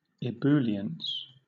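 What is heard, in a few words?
"ebullience", British English; pronunciation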